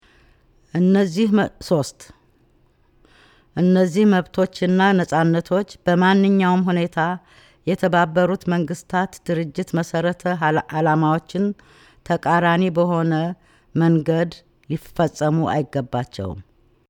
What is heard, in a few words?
Amharic